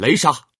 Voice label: thunder slash, male; FreeKill; maneuvering